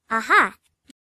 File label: Aha Sound Effect; idea